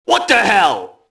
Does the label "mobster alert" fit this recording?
Yes